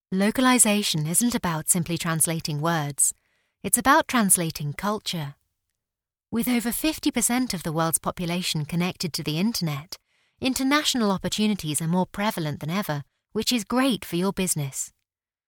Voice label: english british female